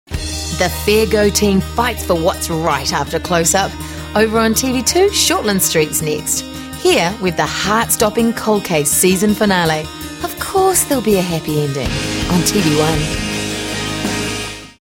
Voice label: TVC Relaxed Natural